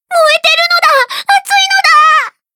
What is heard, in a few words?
Speech Alarms Fire Alarm; Yukikaze